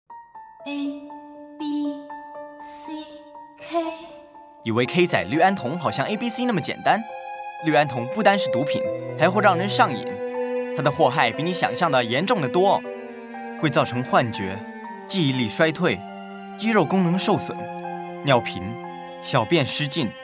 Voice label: putongua; harm of abusing ketamine